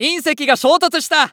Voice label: Announce Meteor Appear; Eternal Return